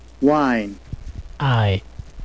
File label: "wine", US